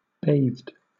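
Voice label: "bathed", British English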